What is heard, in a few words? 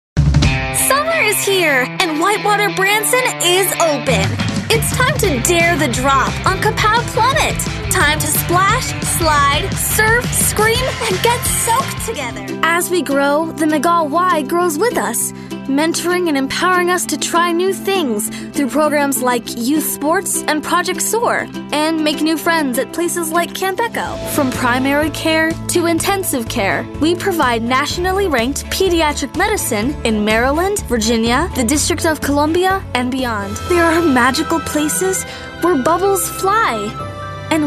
Kid Teen